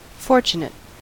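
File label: "fortunate", American English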